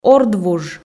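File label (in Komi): "ордвуж", Komi, female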